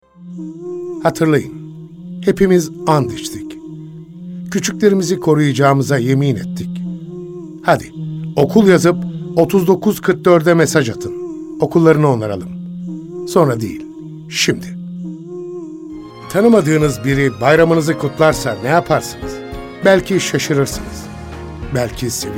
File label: turkish m commercial; voice demos